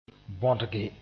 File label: "bõdge", moore